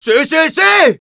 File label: Scout, es; auto capped control point